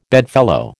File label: "bedfellow", American